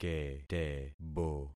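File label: "ketebo", concatenated syllables; Affixing experiment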